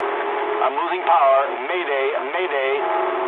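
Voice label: damaged engine mood low, speech English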